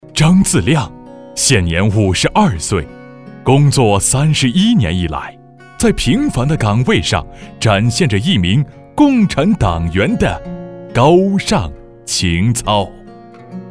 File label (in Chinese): 温情; 人物; 共产党员张自亮人物